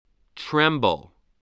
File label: "tremble", voice